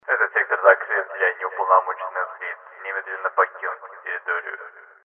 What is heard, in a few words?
announce; Dystopia; exodus